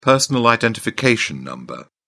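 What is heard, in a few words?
"personal identification number", British English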